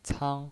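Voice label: "cang", Mandarin Chinese, first tone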